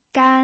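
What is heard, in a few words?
"gan", Mandarin Chinese, first tone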